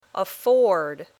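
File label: "afford", English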